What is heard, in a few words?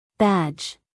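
"badge", American English, female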